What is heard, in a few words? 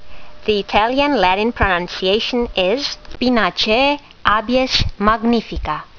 latin; Abies magnifica